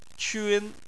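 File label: "qun", Chinese, tone 1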